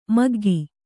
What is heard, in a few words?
"ಮಗ್ಗ", kannada